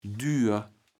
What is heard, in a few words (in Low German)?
"dür", kaevels platt